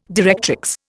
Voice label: "directrix", Indian

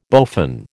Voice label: "bowfin", American

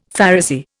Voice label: "Pharisee", Indian; Dictionary

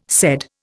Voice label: "said", Indian

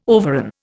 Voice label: "overran", Indian

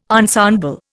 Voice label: "ensemble", Indian

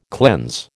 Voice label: "cleanse", American

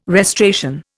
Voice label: "restoration", Indian

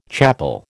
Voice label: "chappal", American

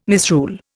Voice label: "misrule", Indian

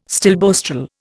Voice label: "stilboestrol", Indian

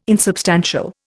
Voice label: "insubstantial", Indian

